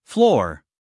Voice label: "floor", us male; everyone-can-use-english